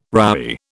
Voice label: "roughy", British